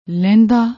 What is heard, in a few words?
"lehndaa", Punjabi